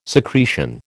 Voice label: "secretion", American